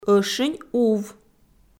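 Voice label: "ӧшинь ув", Komi, female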